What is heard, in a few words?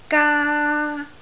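"gaa1", Cantonese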